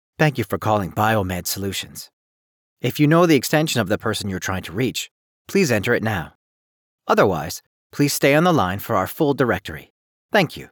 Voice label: IVR